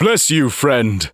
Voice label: voice, taunt; rescued elves